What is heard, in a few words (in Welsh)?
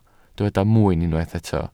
"mwyn", Welsh